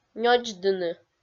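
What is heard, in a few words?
"нёдздыны", Komi, female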